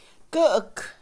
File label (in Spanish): huarache, Mixe, Mujer; Ropa; INALI